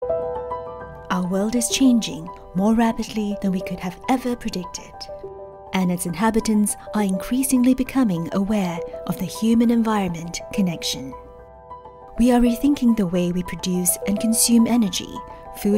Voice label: english singapore explainer videos